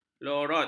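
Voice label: "lorot", Malay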